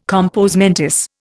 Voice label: "compos mentis", Indian